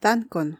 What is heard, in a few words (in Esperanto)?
"dankon", Esperanto; vortoj